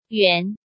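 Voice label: "Yuán", Chinese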